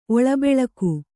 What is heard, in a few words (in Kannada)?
"ಒಳಬೆಳಕು", Kannada